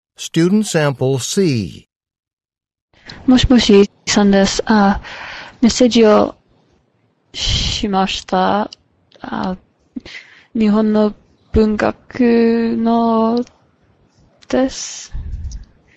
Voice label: Japanese return telephone call; Return Telephone Call 1 Sample C (Score of 2)